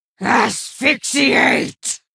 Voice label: Female Human Attack

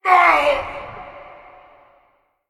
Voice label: male demonic titan death

